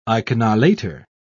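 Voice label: "iconolater", British English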